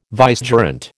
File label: "vicegerent", American